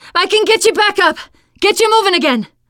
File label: revive friend, teen girl; player; survivor; voice